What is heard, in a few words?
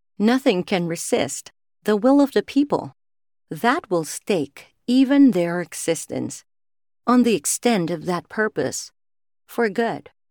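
Filipino English female documentary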